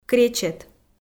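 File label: "кречет", Komi, female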